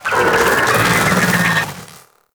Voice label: Vocal Death; Warframe; Sentients; Conculyst